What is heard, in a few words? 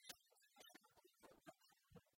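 "тӧвшӧр тӧлысьын комын ӧти лун", Komi, female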